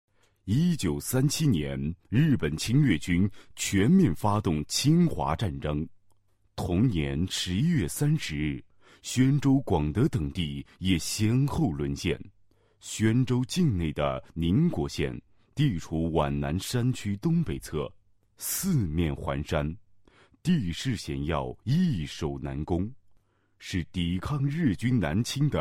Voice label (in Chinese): 男 电影旁白, 国语男声配音 厚重大气; 宣州民国县